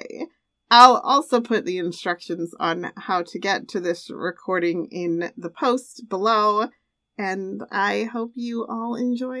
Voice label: Live Event Library Announcement